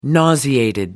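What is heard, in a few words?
"nauseated", British English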